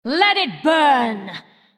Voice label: female undead fire festival